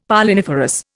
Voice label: "Polliniferous", Indian; Dictionary